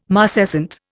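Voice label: "marcescent", Indian English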